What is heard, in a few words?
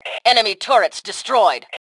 Enemy Turrets, Female; T2 Female 02